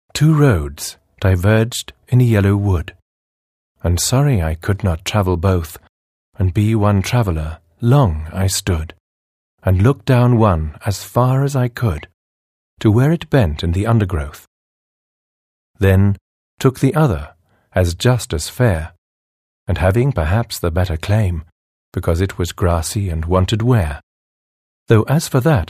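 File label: British English, Schauspiel Sanft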